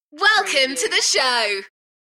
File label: UK female, Enthusiastic; beanstalk audio